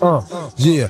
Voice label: "uh yeah" big; ooh ahh